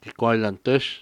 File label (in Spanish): "becerro", Cucapá; Animales